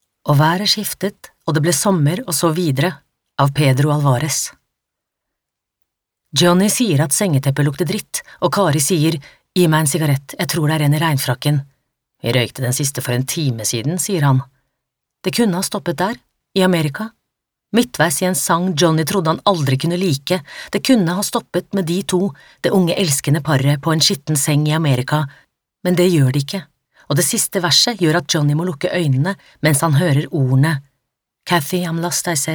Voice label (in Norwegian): no lydbok